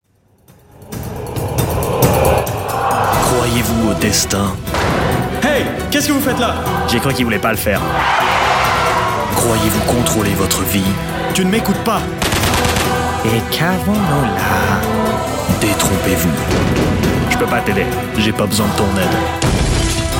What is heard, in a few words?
french male movie trailer fr